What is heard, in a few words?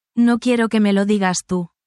spanish, spanish phrases negative language